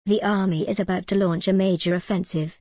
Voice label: en gb the army is about to launch a major offensive